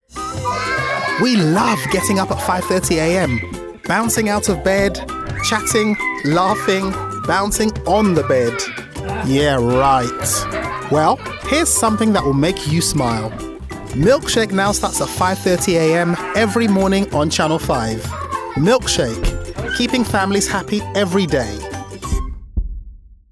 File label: Voiceover Promo